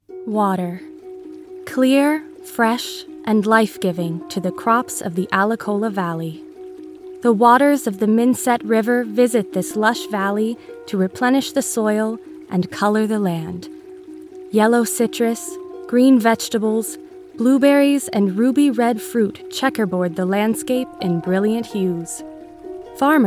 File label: narration sample